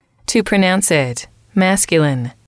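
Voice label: to pronounce it, English, female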